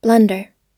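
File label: "blunder", American English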